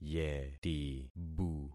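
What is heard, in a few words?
"yetibu", concatenated syllables; Affixing experiment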